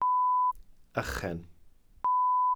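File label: "ychen", Welsh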